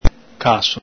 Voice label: "caso", Veneto